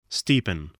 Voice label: "steepen", voice spelling